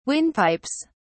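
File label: "windpipes", British English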